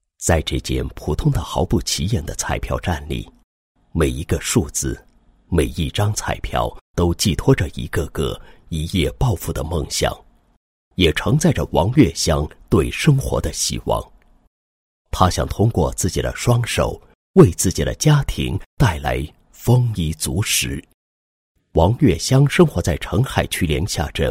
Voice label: 男 人物 解说词; 残疾人王某励志事迹

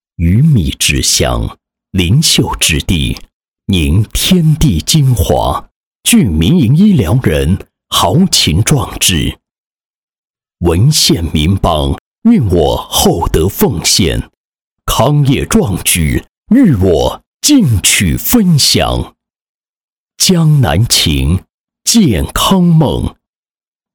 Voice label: 男 专题 介绍; 民营医疗健康产业

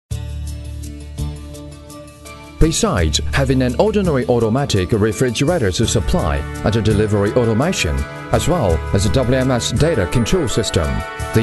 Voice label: 英男 智能冷链仓储演示解说 现代动感

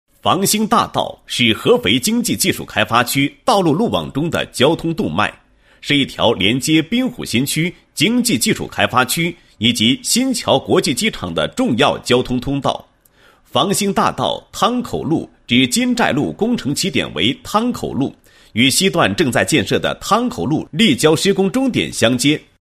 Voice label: 男 工程解说 大道工程三标解说词; 方兴大道

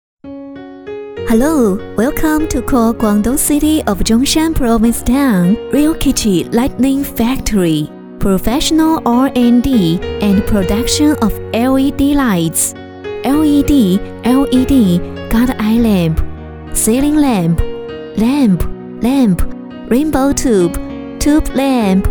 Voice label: 女 彩铃 英文版 温柔甜美

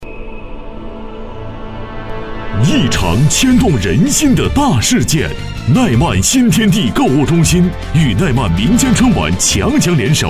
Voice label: 男,广告,广告配音; 新天地

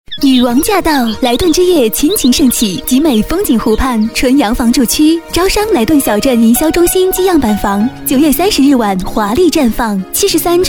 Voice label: 女 广告 招商 温柔; 国语女声配音; 专题广告

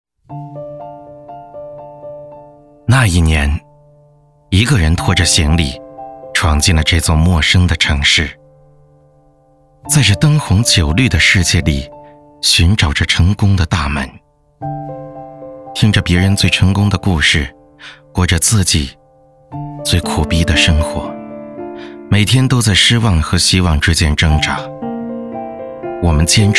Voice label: 独白 公益片; 腾讯劳动节

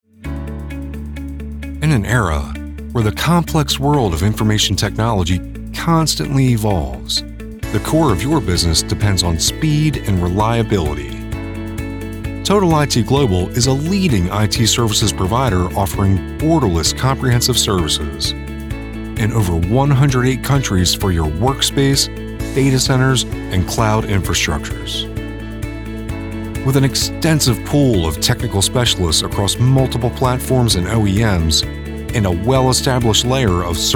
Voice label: 外籍英男 IT解决方案 叙述

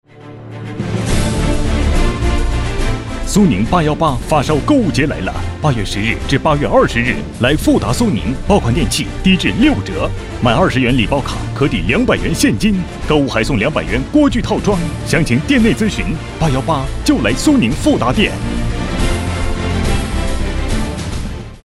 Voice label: 男 促销广告; 苏宁

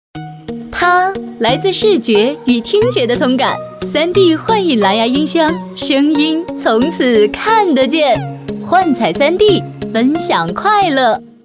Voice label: 女, 广告, 青春活力; 3D蓝牙音箱

